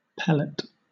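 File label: "pellet" English, British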